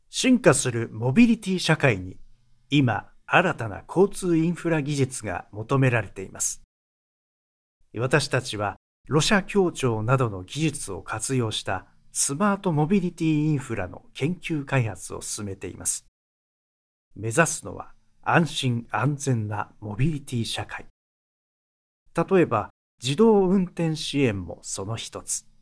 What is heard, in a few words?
male